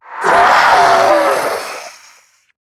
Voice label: Death, en; Zariman Wraith